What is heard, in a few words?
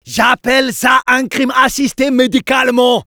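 special completed, French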